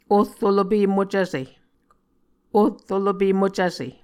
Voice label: "ohrolope mocvse", Muscogee